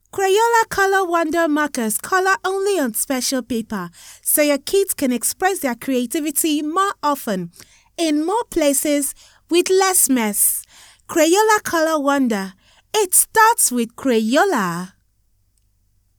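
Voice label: West African English, female, kids commercial; accent of africa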